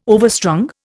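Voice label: "overstrung", Indian English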